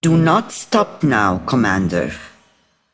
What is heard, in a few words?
Female Broker Ahead